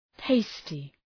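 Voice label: "tasty", English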